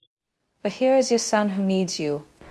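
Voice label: Voice Acting Spanish Female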